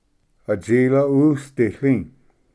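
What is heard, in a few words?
"atsila usditli", Cherokee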